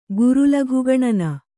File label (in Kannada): "ಗುರುಲಘುಗಣನ", Kannada